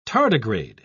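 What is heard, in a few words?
"tardigrade", British English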